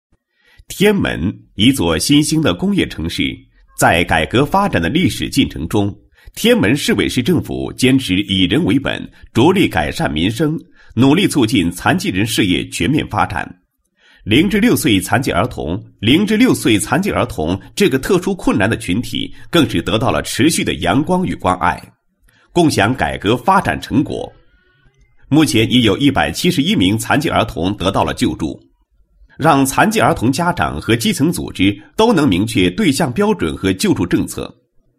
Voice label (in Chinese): 男 高音 政府报告; 高音经济型; 天门市政府报告